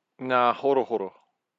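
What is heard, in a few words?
"ngahorohoro", Māori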